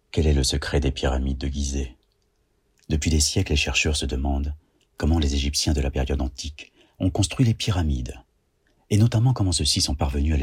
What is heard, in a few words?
foreigner